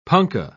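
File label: "punkah", British English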